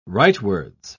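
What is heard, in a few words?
"rightwards", British English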